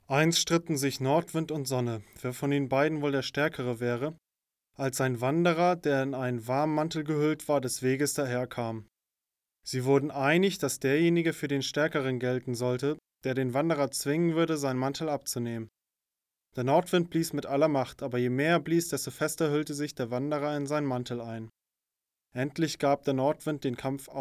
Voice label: male, 50 km/h, lombard